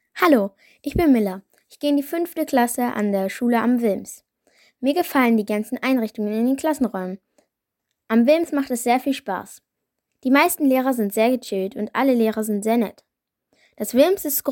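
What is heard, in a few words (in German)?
Kommentar einer 5. Klässlerin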